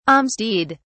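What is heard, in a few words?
"alms deed", British English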